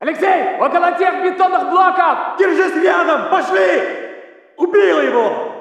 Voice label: walla rus mountain chatter; modern warfare 2; amb walla